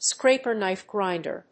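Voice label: "scraper-knife-grinder", English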